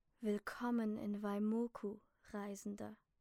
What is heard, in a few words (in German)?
Orakel Stimme